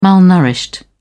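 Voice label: "malnourished", English, uk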